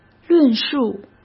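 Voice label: "论述", Mandarin Chinese; chinese-flashcards; hsk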